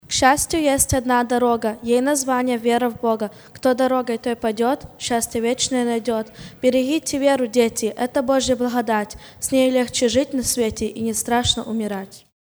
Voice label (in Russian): Дети - стихи; Церковь «Путь ко Спасению»